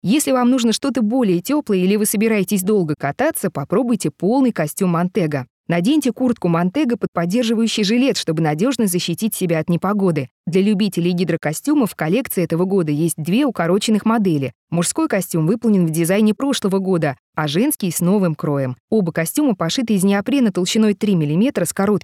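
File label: Russian, female, fast speech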